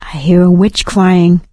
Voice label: heard witch, survivor voice; Left 4 Dead 2; producer

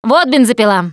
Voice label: melee weapons, russian, Survivor Voice Producer

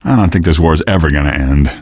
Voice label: question, male; Garry's Mod; hl2

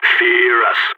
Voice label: on a roll brag, balkan; Counter-Strike Global Offensive

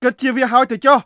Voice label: Khmer Order; Beyond the Sword; Units

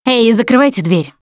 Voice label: close the door, russian, Survivor Voice Producer

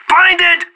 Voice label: blinded, player vo; Counter-Strike Global Offensive

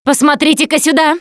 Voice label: look here, russian; Producer

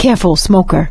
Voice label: heard smoker, survivor voice; Left 4 Dead 2; producer

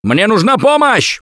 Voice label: help, russian; Gambler

